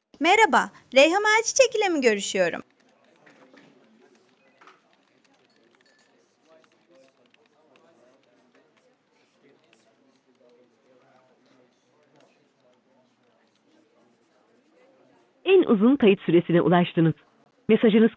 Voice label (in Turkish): Yapay Zeka Arama, Görüşme Kaydı; En uzun kayıt süresine ulaştınız.